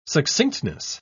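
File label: "succinctness", British English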